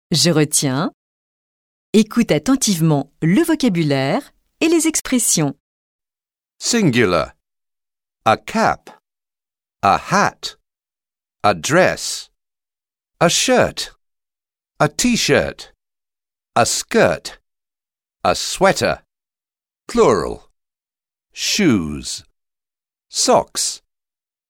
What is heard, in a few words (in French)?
son anglais